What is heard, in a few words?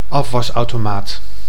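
"afwasautomaat", Dutch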